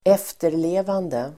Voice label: "efterlevande", Swedish